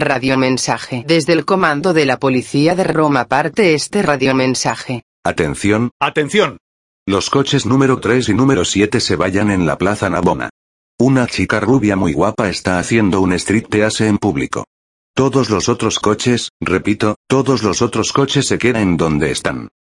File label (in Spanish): radiomensaje